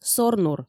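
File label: "сорнур", Komi, female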